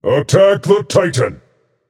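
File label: vox attack, male; smite; osiris; voicepack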